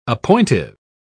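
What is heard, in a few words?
"appointive", British English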